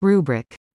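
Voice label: "rubric", English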